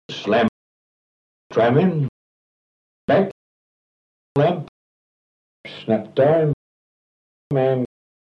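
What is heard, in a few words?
kent staples male trap, accents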